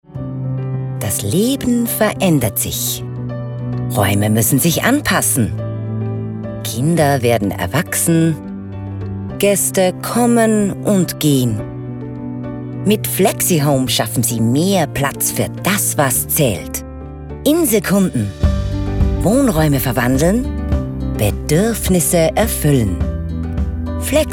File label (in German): Werbung; Flexihome